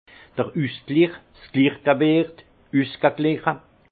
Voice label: "usglich", alsace; vin; équilibre

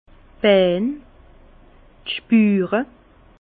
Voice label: "bein", Bas Rhin; jambes; vin

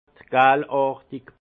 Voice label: "galartig", alsace; jaunâtre; vin

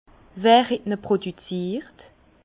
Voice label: "produkziert", Bas Rhin; vin; produit par qui

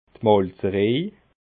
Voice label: "malzerej", alsace

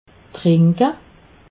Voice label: "trinke", alsace

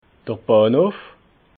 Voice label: "bahnhof", alsace

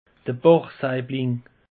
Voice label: "bachsaibling", alsace; peche

